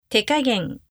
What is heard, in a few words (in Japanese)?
"手加減", Japanese